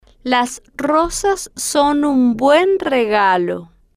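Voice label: las Rosas Son Un Buen Regalo, Spanish, female, slow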